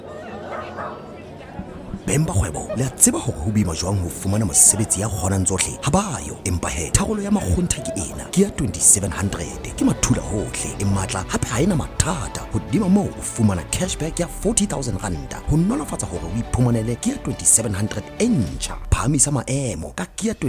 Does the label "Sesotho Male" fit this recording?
Yes